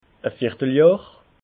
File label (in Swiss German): "vierteljohr", alsace